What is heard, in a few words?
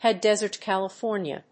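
"High Desert (California)", English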